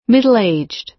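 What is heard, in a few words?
"middle-aged", American English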